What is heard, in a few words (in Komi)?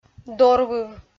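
"дорвыв", Komi, female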